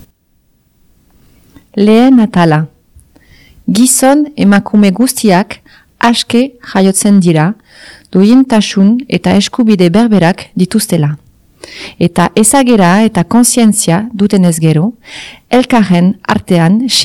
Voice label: euskera